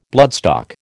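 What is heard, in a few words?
"bloodstock", American English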